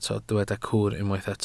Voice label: "cwr", Welsh

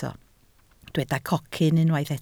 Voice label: "cocyn", Welsh

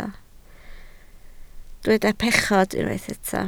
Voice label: "pechod", Welsh

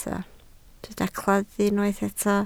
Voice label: "claddu", Welsh

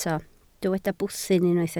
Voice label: "bwthyn", Welsh

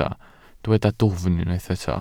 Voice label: "dwfn", Welsh

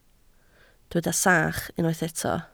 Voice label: "sach", Welsh

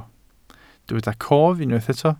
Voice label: "cof", Welsh

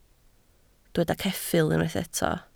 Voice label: "ceffyl", Welsh

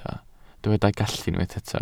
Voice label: "gallu", Welsh

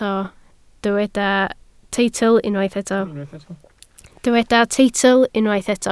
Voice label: "teitl", Welsh

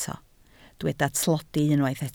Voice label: "tlodi", Welsh

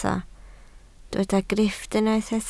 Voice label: "grifft", Welsh

